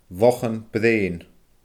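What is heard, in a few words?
"lochan breun", Scottish Gaelic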